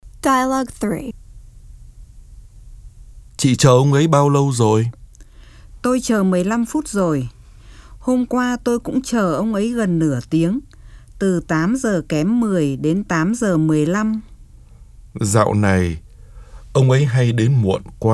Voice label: Dialog